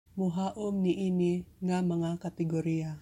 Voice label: Cebuano; fit into these categories - mohaum niini nga mga kategoriya